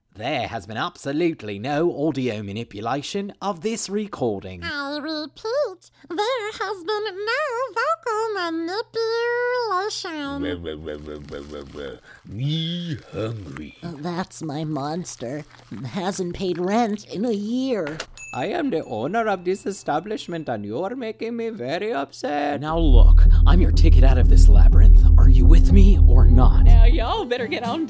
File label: Animation VO